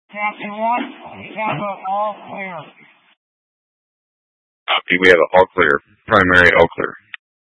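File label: Incident Tac 1, Scanner audio recording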